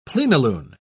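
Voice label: "plenilune", British English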